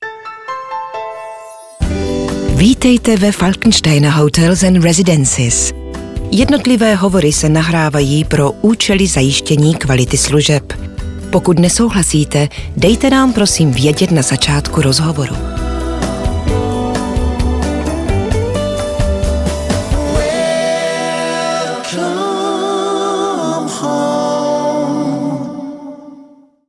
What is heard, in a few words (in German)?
Ansage, CZ; Falkensteiner